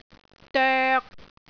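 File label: "doek3", Cantonese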